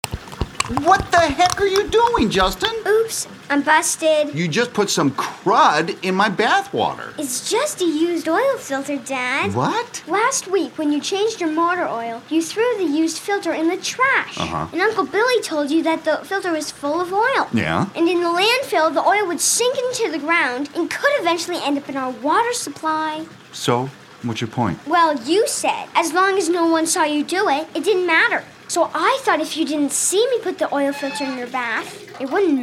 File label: english; bathtub